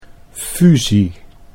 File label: "fusie", Dutch